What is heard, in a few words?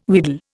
"wheedle", Indian